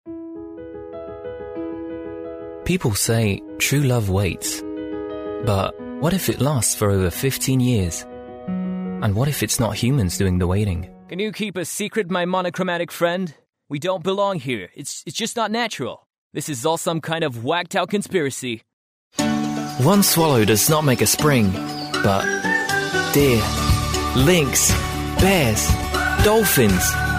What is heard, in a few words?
EN, english